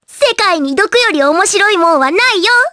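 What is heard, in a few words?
voice dia, voices heroes, Japanese; Requina; kingsraid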